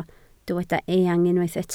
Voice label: "eang", Welsh

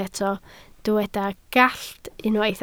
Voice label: "gallt", Welsh

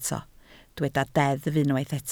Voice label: "deddf", Welsh

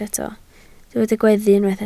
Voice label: "gweddu", Welsh

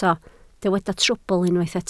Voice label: "trwbl", Welsh

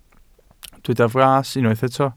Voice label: "fras", Welsh

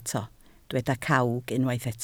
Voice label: "cawg", Welsh

